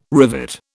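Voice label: "rivet", British English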